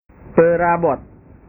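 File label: "perabot", Indonesian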